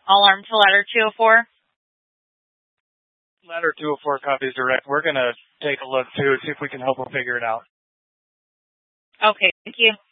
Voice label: Fire Departments Mesa Simulcast; TOPAZ